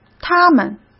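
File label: "它们", Mandarin Chinese; hsk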